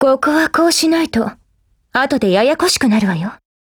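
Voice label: tip, Japanese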